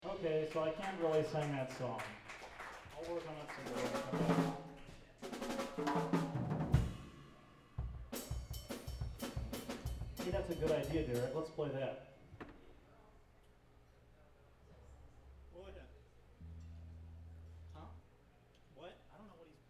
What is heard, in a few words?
banter; One World - December 2 2000